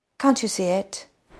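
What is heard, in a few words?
Voice Acting Spanish Female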